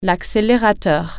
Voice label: gas pedal, french